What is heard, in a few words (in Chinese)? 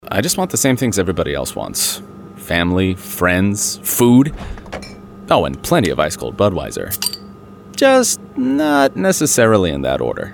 loser腔 啤酒广告; 百威啤酒